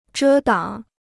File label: "遮挡", Chinese